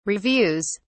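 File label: "reviews", British English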